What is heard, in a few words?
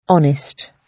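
"honest", British English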